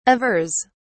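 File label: "avers", English, British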